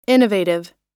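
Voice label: "innovative", US English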